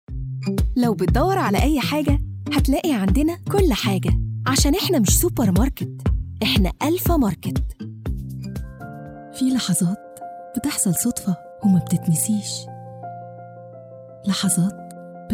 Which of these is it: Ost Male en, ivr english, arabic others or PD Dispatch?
arabic others